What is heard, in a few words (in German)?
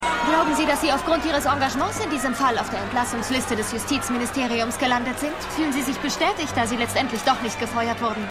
Reporterin; Stimmproben; Dopesick